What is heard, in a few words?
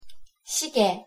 "시계", Korean; pronunciation